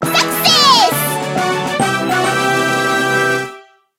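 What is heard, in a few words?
guild raid success ja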